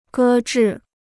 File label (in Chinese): "搁置", Chinese